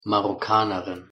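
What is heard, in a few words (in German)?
"marokkanerin", German; pronunciation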